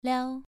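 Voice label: "liao", Mandarin Chinese, second tone, pronunciation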